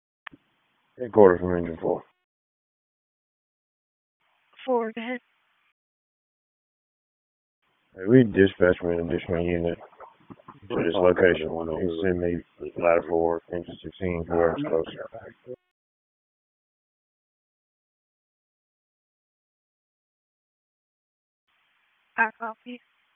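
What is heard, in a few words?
Fire Department HQ Dispatch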